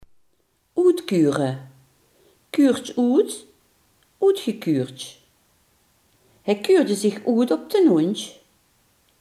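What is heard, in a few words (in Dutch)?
"botvieren" Limburgish dialect word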